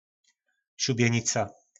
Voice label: "Šuběnica", nářečí Po našimu; Šibenice

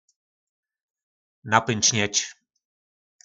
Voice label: "Napynčňeč", nářečí Po našimu; Nabobtnat

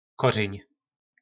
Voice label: "Kořyň", nářečí Po našimu; Kořen

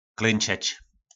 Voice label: "Klynčeč", nářečí Po našimu; Klečet

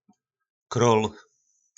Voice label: "Król", Slovník nářečí; Král

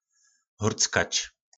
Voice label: "Hórckač", nářečí Po našimu

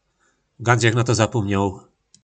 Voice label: Ganc žech na to zapómňoł., po našimu

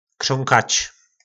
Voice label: "Křónkač", nářečí Po našimu; Kuckat

